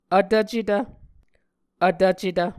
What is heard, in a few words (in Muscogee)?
"vtvcetv", Muscogee